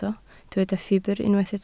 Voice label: "ffibr", Welsh